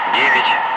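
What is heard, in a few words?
"5", spoken; Ka-50; Wingman; Digits; DCS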